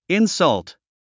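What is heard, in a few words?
"insult", English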